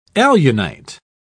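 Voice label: "alunite", British English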